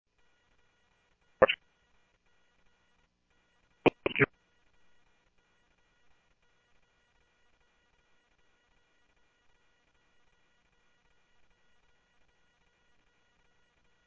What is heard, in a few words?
Police, scanner